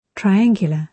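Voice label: "triangular", British English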